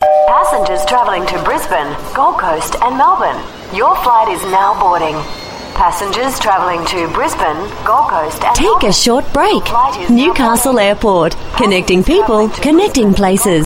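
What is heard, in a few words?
AU English, Australian English; Newcastle Airport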